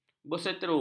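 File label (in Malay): "berseteru", Malay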